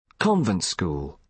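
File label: "convent school", British English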